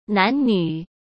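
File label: "男女", Mandarin Chinese; hsk; chinese-flashcards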